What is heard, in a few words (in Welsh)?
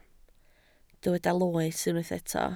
"loes", Welsh